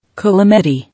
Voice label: "Calamity", English